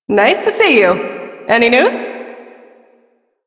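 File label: Female Brisk; Warframe; Duviri; Duviri Ambient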